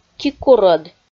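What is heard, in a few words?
"кикурӧд", Komi, female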